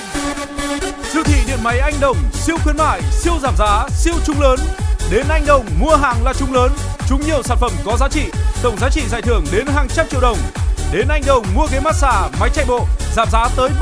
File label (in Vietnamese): Thu am quang cao phat loa dien may